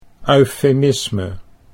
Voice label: "eufemisme", Dutch

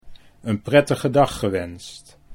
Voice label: een prettige dag gewenst, Dutch

